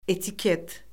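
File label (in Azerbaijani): "etiket", Azerbaijani; Orfoqrafiya Lüğəti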